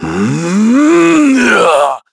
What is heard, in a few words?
Casting, kr; Shakmeh; kingsraid; voices heroes